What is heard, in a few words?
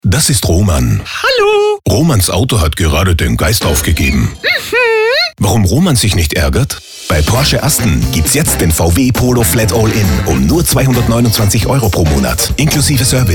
SPOT; Porsche Asten März 2019